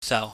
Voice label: "sau", pingyam